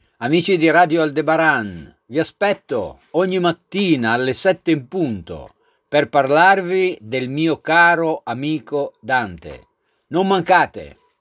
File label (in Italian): Promo Radio; Dante; Aldebaran Chiavari